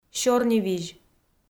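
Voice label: "сёрнивизь", Komi, female; kpv audio dict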